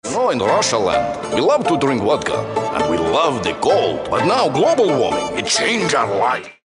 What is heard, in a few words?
Russian Character